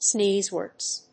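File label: "sneeze-wort", English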